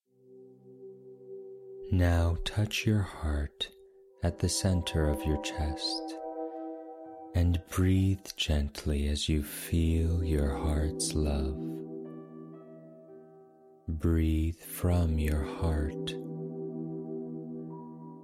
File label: muscular relaxation